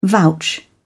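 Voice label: "vouch", British English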